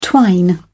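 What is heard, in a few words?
"twine", English, British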